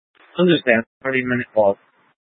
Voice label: Light Rail Emergency, scanner

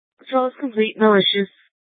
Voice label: Court Security Dispatch, scanner

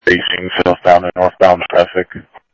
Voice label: Police, scanner audio trunk; H-Scottsdale

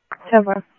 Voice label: Police, scanner; H-Scottsdale; ScottsdalePolice

